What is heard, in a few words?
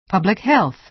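"public health", American English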